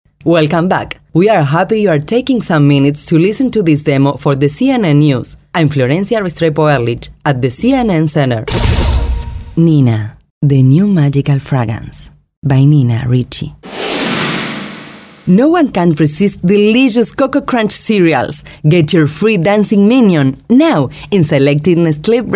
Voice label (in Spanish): locución ingles